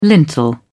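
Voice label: "lintel", British English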